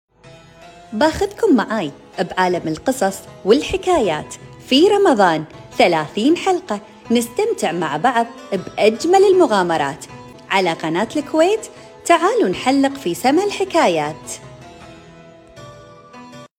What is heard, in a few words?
kuwaiti voiceover